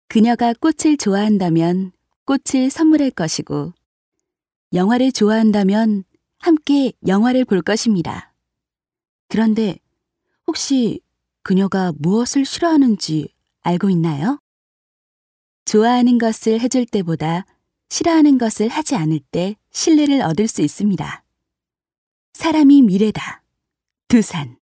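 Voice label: Korean female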